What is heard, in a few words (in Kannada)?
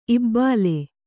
"ಇಬ್ಬಳ", Kannada